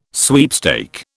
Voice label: "sweepstake", British